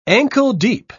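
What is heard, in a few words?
"ankle-deep", British English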